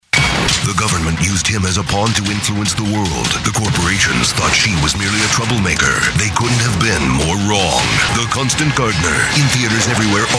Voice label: men commercial; From movie trailers to impressions - deep voice with remarkable range & intense delivery.